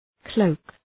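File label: "cloak", English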